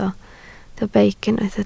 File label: "beic", Welsh